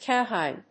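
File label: "cowhide", English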